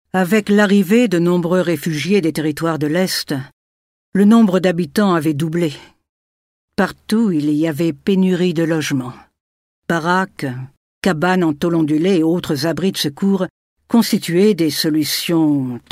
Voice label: FR Neutral

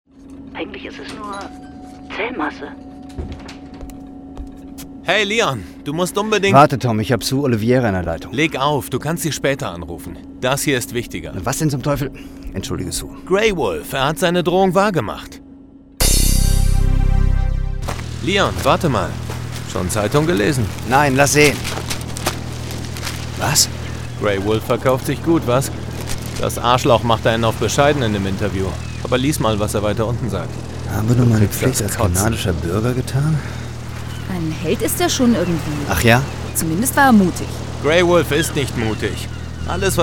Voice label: Schauspiel Neutral

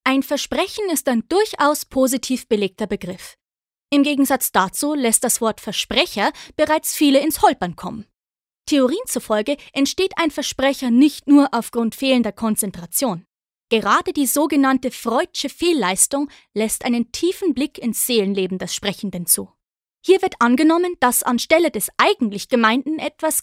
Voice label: Bayerisch Freundlich

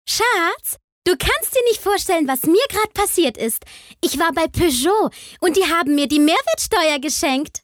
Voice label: Werbung Energisch

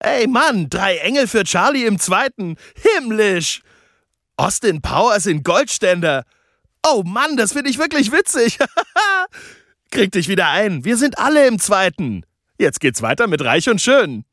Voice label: Fremdtake Dynamisch

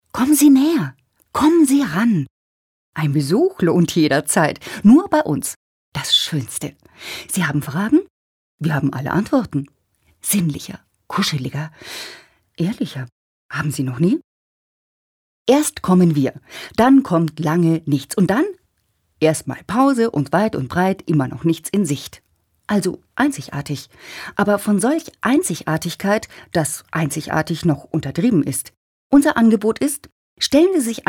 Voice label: Schauspiel, Lebendig

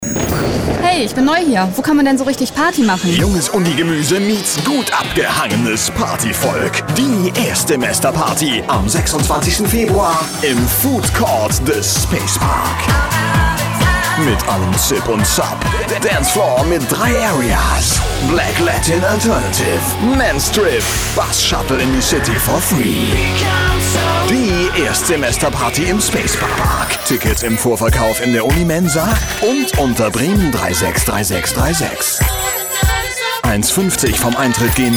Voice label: Werbung Dynamisch

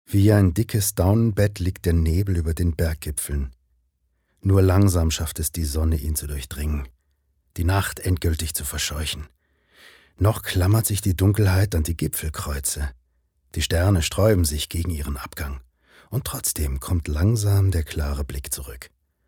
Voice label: Schauspiel Variabel

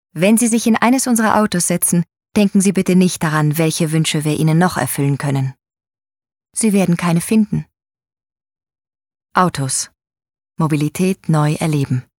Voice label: Werbung Freundlich

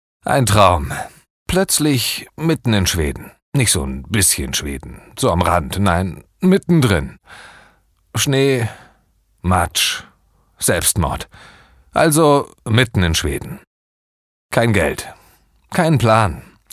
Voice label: Schauspiel Dynamisch

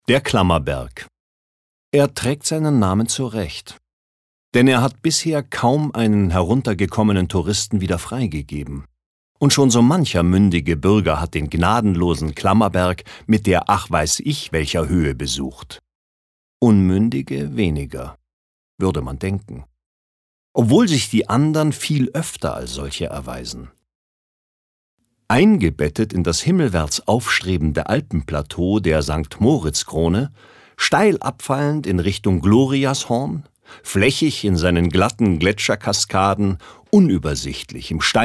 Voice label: Kommentar

